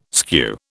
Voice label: "skew", British